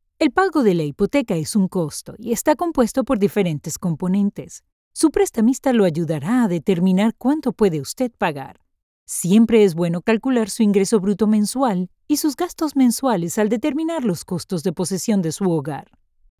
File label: eLearning